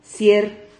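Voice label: "cier", Spanish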